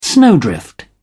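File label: "snowdrift", British English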